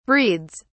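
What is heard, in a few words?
"breeds", British English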